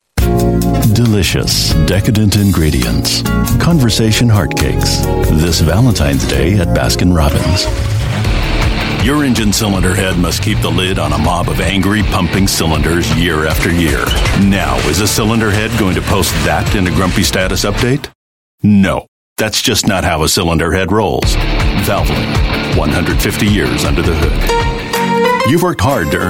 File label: Commercial US English, English US Male